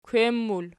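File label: "kwem" plural, Archi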